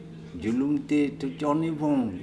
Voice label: julumte Thutchonnibom I will go tomorrow, Andamanese